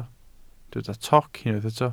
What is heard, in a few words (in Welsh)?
"toc", Welsh